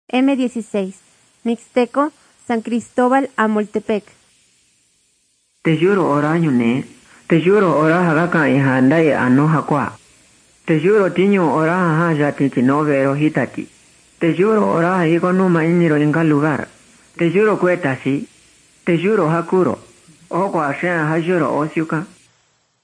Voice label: mixtec diagnostic spanish mexico

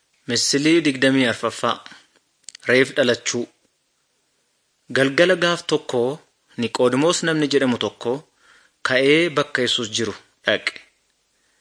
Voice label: good news oromo wellega

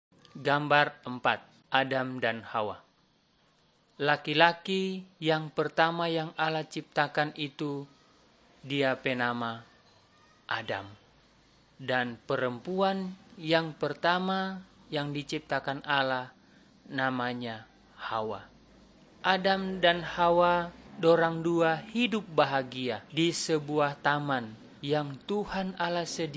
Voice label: malay manado; good news

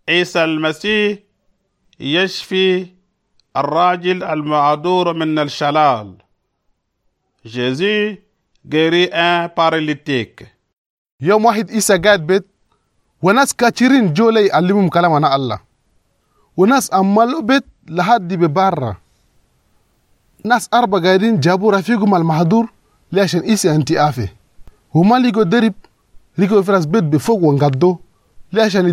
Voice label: authority, chadian arabic

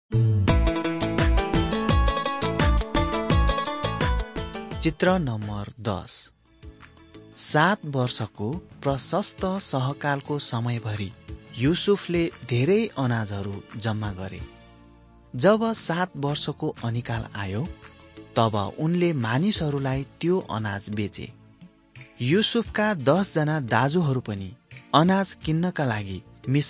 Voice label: mighty men of god nepali

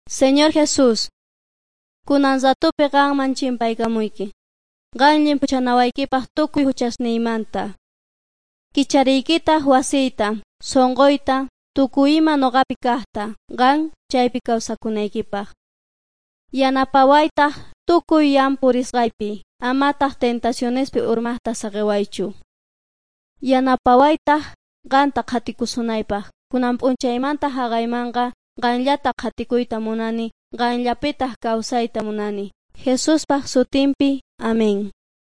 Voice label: beginning with god quechua s bolivian cochabamba

